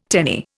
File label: "tenny", Indian